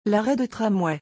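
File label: "l arret de tramway", French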